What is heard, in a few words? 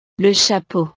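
"le chapeau", French; ropa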